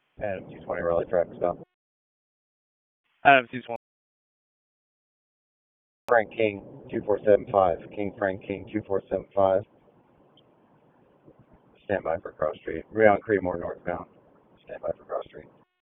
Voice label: Police Northeast District Disp, p25; Raleigh